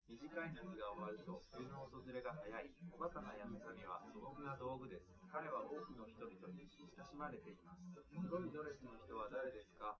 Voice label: male, live rec; SiSEC11; underdetermined

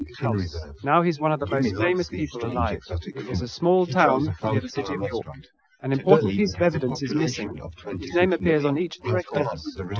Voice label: male inst src; SiSEC11; underdetermined

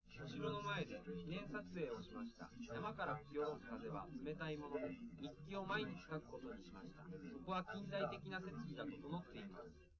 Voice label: male live rec

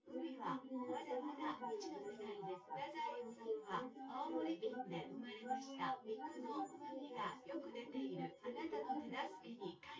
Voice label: female synthconv

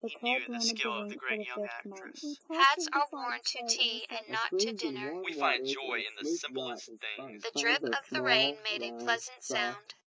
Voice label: anechoic; src1 40 src2 120 16kHz; SiSEC08; SiSEC headgeometry

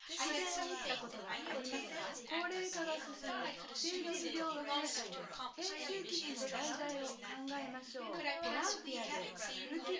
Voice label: female4 synthconv 250ms 5cm src

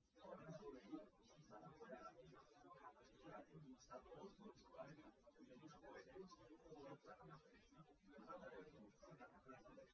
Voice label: test male liverec 250ms 5cm sim; SiSEC08; SiSEC underdetermined

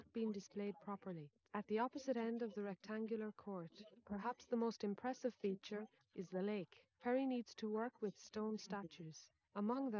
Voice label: female, inst

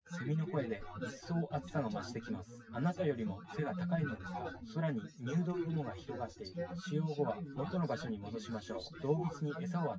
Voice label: test male synthconv 130ms 1m src; SiSEC08; SiSEC underdetermined

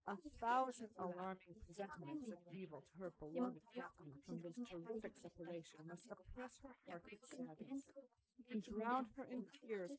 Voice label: female inst sim; SiSEC11; underdetermined

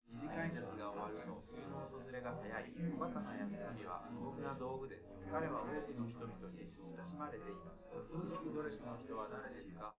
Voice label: male liverec; SiSEC11; underdetermined

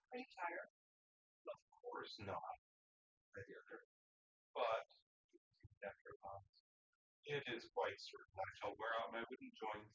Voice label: male synthconv; SiSEC08; SiSEC underdetermined

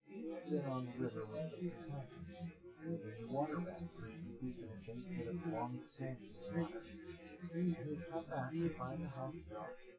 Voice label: male synth conv; SiSEC10; underdetermined

